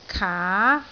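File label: "kaa2", Cantonese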